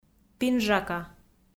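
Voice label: "пинжака", Komi, female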